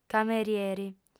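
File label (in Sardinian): "camerieri", female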